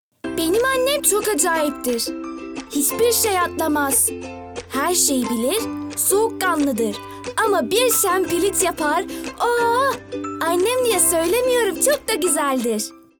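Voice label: Turkish, General; GoLocalise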